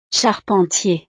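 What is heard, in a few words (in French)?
"charpentier", French; profesiones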